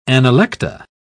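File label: "analecta", British English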